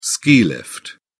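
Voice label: "ski lift", British English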